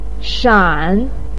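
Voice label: "shan", Chinese pinyin, third tone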